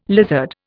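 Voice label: "lizard", Indian English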